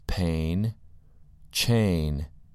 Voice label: "pain" "chain"

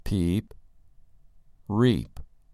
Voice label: "peep" "reap"

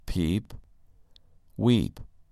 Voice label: "peep" "weep"

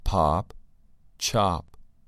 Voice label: "pop" "chop"

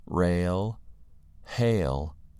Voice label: "rail" "hail"

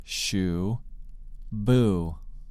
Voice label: "shoe" "boo"

